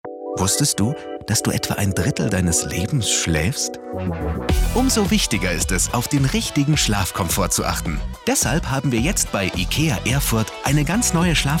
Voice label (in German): FUNK SPOT; IKEA Erfurt